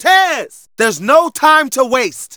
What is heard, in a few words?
Narration